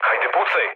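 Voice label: Serbian attack position mood low, speech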